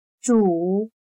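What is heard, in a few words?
"主" Chinese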